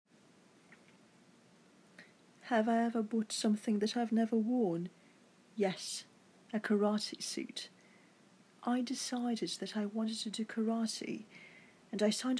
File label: English